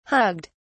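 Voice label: "hugged", English, uk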